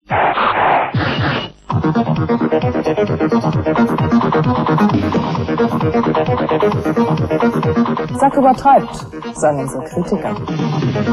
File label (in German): werbung